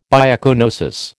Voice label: "biocoenosis", American

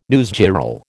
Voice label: "newsgirl", American

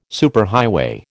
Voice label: "superhighway", American

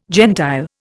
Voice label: "gentile", Indian

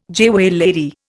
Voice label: "jewellery", Indian

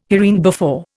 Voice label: "hereinbefore", Indian

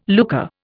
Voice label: "lucre", Indian

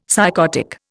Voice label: "psychotic", Indian; Dictionary

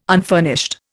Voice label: "unfurnished", Indian

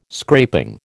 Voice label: "scraping", American

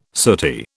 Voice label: "sooty", British

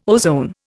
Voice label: ozone, Indian

